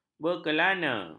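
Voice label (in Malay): "berkelana", Malay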